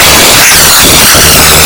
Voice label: chipmunk dying

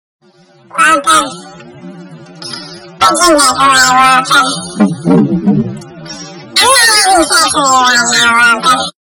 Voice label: chipmunk; waltuh

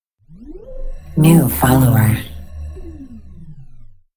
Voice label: new follower female; spark informative

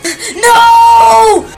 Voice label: kid nooooo; hulk

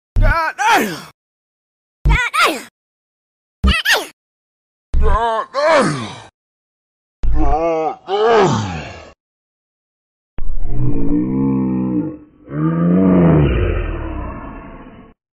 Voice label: god damn sped and slowed

